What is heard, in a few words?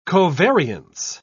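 "covariance", British English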